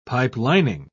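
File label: "pipelining", British English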